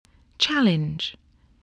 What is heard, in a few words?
"challenge", English, voice